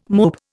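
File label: "mope", Indian English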